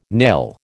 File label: "nail", American